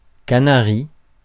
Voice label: "canari", français

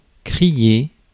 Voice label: "crier", français

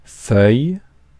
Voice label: "feuille", français

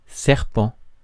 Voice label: "serpent", français

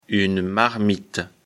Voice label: "marmite", fr; lecture fr

